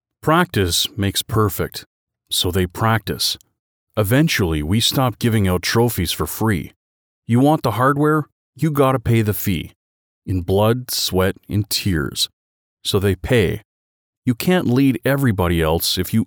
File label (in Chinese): 外籍男